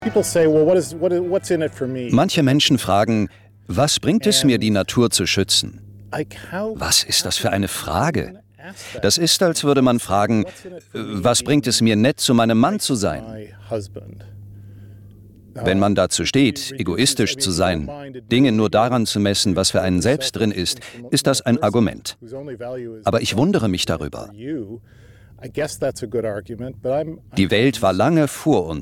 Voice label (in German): Voice-Over Naturschutz